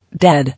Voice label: "Dead", English